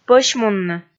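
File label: "пӧсьмунны", Komi, female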